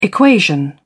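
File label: "equation", British English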